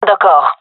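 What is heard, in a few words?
agree, gendarmerie female; vo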